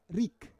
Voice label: "rik", Roon

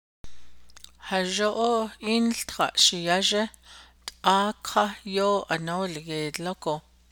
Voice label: navajo; Little one do school the right way see that you

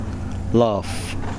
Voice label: "cave", Kosraean

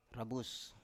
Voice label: "rabus", Biak

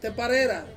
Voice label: "teparera", Ansus; wide

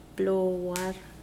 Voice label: floor, Mokilese

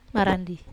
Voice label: "marandi ii", Wamesa

